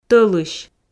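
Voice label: "тӧлысь", Komi, female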